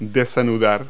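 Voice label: "untie", verb; Multi-Lingual Talking Picture Dictionary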